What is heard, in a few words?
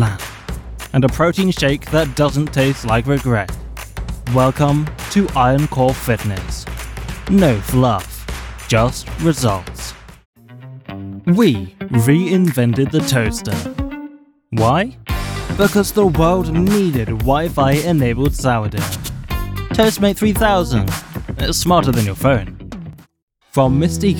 Commercial Sample With Music